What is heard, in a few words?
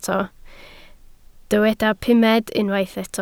"pumed", Welsh